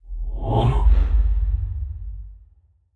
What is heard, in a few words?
confused; aragami